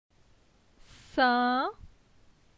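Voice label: "Sin", French; phonetique; la syllabe in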